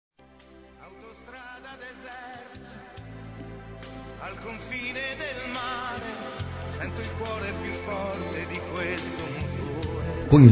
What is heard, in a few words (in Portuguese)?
Quero Te Encontrar Masculino